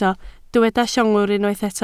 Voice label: "llongwr", Welsh